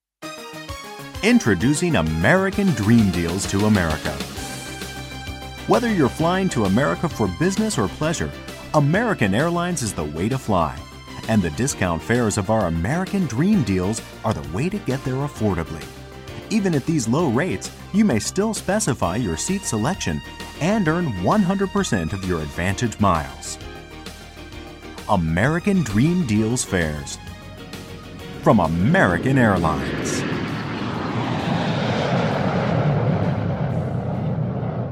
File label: usa man; MediaVoix; vice sample